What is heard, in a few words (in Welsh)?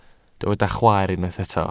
"chwaer", Welsh